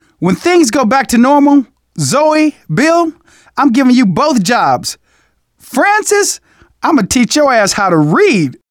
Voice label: zombie generic long, survivor voice; manager; Left 4 Dead 2; left4dead2 dlc2